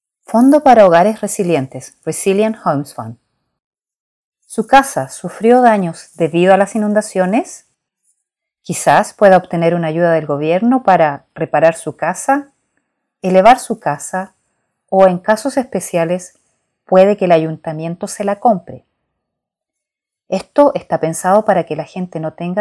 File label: spanish